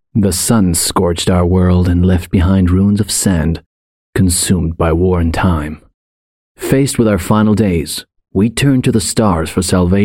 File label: male English American